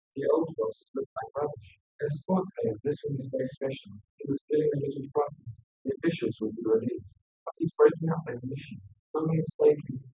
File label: male; SiSEC10; underdetermined